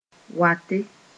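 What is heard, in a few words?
"wate", Mooré; coming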